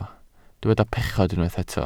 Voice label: "pechod", Welsh